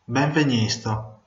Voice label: welcome, venetian; Benvegnesto